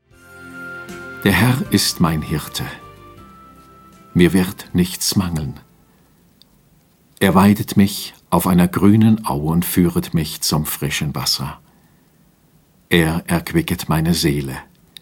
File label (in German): Lesung